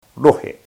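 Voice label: "rohe", Māori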